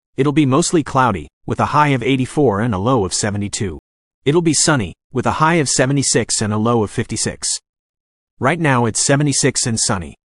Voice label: Gemini for Android is testing a new voice Male